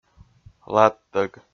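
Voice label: "ладтӧг", Komi, male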